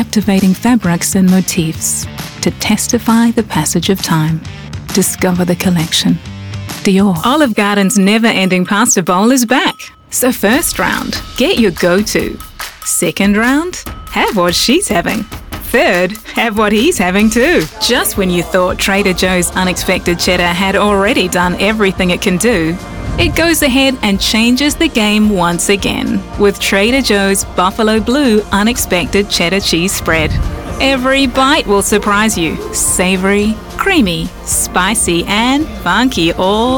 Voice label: Commercial, Female voice over commercial, Warm clear versatile professional voice; radio